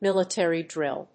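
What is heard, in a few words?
"military drill", English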